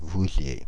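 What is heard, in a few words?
"Paris Vouziers", French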